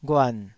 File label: "ghuan3", Taiwanese syllable